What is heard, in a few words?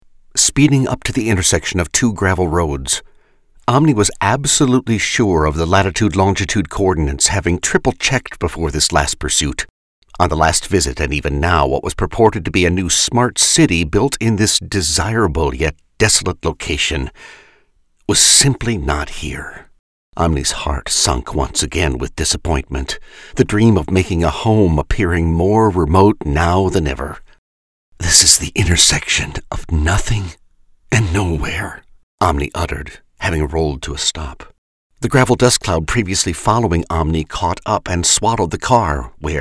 third person fiction; OMNI 2